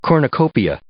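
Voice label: "cornucopia", English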